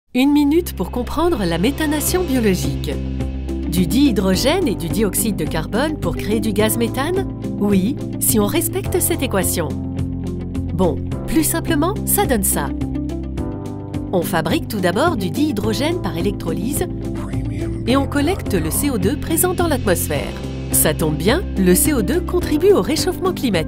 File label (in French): Voix vidéo; Solarzac